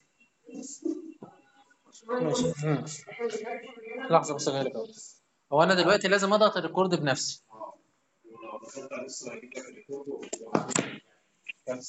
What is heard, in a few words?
Call recording